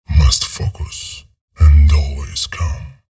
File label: chatter neutral, en; visor